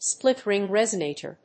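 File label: "split-ring resonator", English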